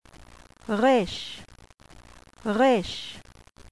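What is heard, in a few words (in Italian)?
"resh", Ebraico; qabalah; alfabeto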